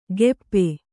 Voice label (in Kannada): "ಗೆಪ್ಪೆ", Kannada